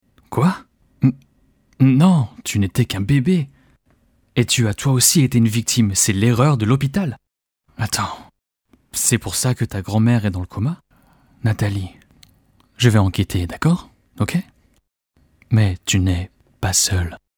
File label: locutor frances french voiceover